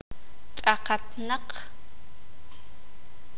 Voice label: sakapulteko; completo

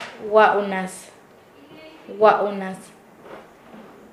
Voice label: Nasa Yuwe; que habla; paez

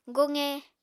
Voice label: "goqe", Apatani

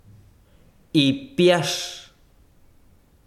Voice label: besiro ala, chiquitano

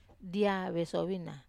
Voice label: "dia vesovina", Wamesa

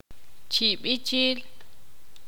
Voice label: ixil; ser feliz

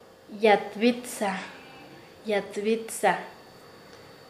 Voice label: "que edifica", Nasa Yuwe; paez

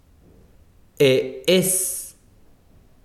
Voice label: besiro mano, chiquitano

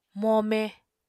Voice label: "mome", Apatani

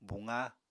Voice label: "buqa", Apatani

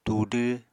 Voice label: "tudw", apatani

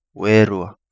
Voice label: Kapingamarangi; Werua

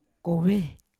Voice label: "kove", Yawa; to love; unat

